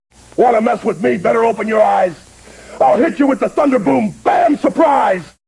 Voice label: happy; fertig classic games; smasher